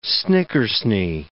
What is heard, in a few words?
"snickersnee", english